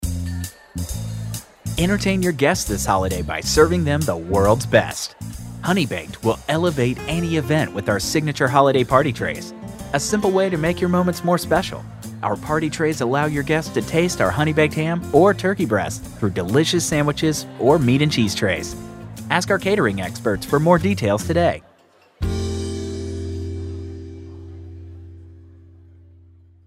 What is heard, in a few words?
On Hold Restaurant; Honey Baked Ham